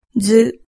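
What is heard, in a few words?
"zu", Japanese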